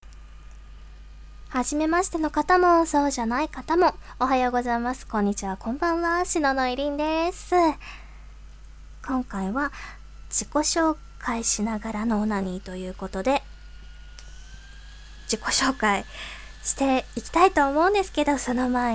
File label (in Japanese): 自己紹介オナニー